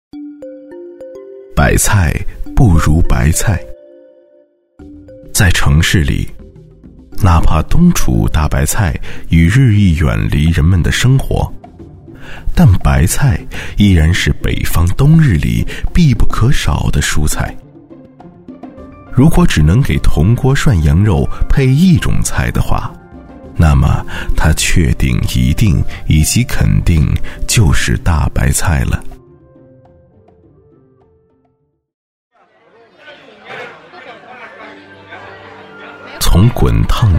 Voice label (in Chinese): 舌尖讲述; 国家地理试音